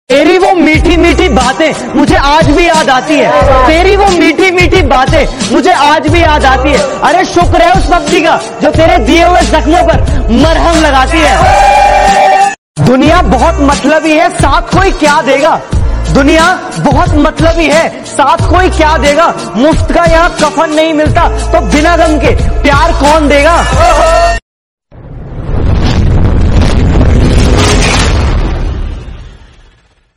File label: boy sad shayari